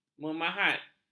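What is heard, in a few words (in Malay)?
"memahat", Malay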